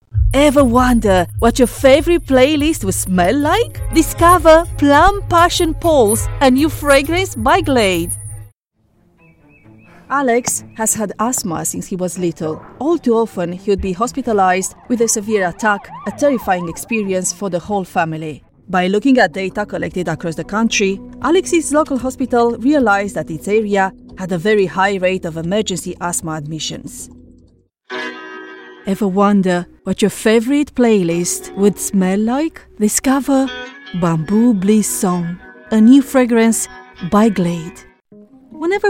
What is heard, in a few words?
English, Romanian, Female, 20s-30s; Eastern European